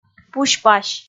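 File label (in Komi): "пусь-пась", Komi, female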